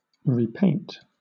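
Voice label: "repaint", British English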